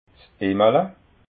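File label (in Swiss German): "immala", Alsatian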